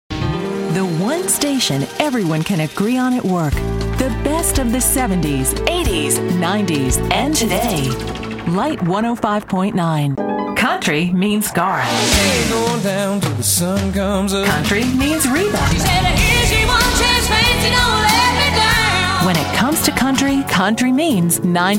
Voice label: radio imaging; litefm